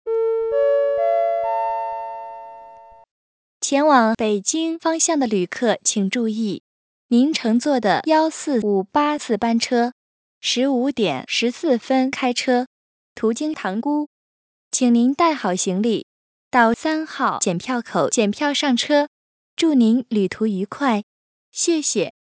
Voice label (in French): Annonce dans les gares